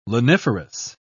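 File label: "laniferous", British English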